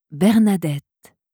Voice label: "bernadette", franzoesische maedchennamen

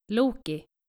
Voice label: "loki", skandinavische jungennamen

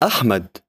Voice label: "achmed", arabische jungennamen

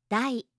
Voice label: "dai", japanische maedchennamen

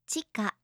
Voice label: "chika", japanische maedchennamen

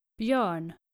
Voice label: "bjoern", nordische jungennamen

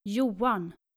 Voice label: "johan", nordische jungennamen

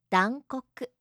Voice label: "dankoku", japanische maedchennamen

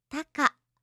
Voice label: "taka", japanische

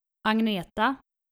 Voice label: "agneta", nordische maedchennamen

